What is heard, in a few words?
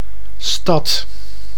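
"stad", Dutch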